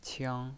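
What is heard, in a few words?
"qiang" first tone, pinyin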